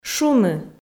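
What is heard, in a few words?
"шуны", Komi, female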